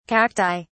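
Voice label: "cacti", British English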